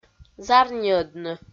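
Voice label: "зарниӧдны", Komi-Zyrian, female; audio dict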